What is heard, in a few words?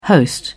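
"host", British English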